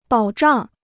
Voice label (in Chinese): "保障", Chinese